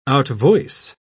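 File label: "outvoice", English, British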